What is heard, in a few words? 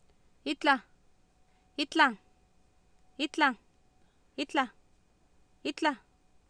"itlah", Oapan, female; Oapan minimal pairs 2000